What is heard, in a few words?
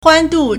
"huan1 du4", Chinese